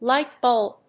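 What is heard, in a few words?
"light bulb", English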